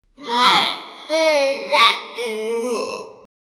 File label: a deep dark commanding, evil